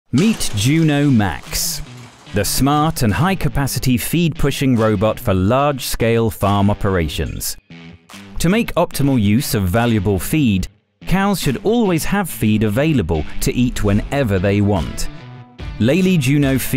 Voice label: UK; Lely Juno Max